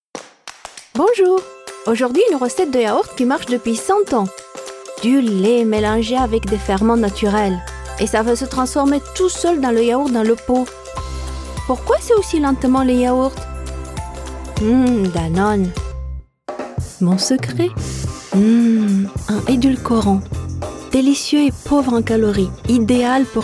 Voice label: French

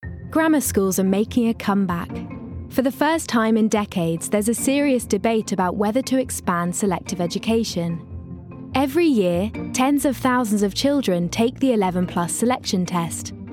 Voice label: Narration

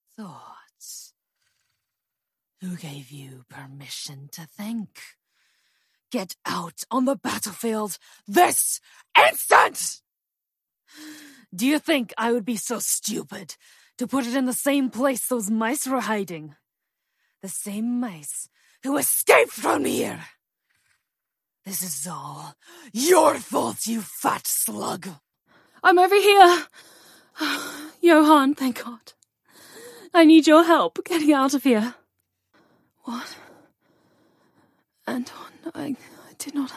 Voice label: Animation

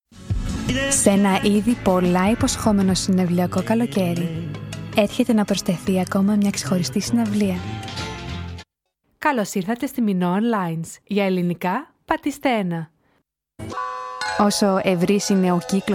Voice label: Greek

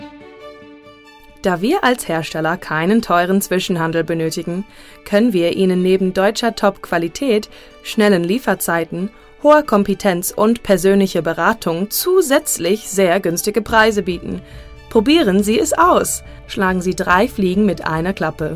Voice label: German

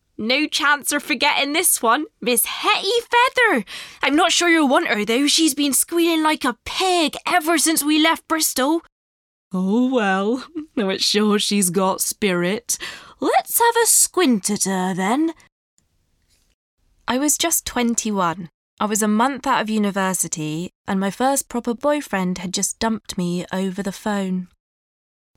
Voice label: Audiobooks, female

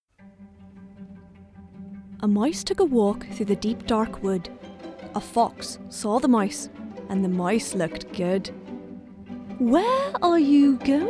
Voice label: Animation

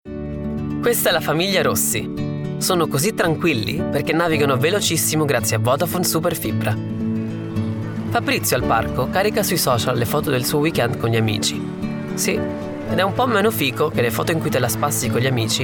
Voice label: Italian

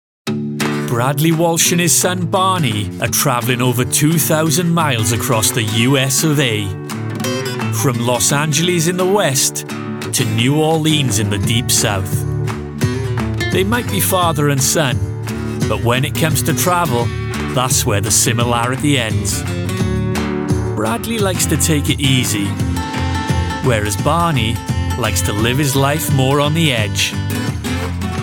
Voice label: Documentary

